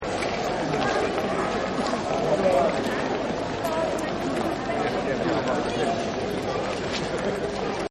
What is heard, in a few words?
Walk n Talk, Crowds; Sound Effects:Backgrounds